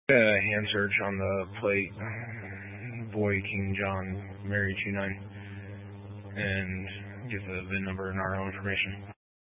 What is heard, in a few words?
Mesa County Sheriff Dispatch